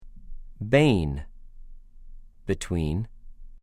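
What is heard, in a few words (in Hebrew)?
"בֵּין", Hebrew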